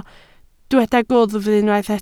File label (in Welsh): "gwddf", Welsh